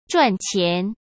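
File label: "赚钱", Mandarin Chinese; hsk; chinese flashcards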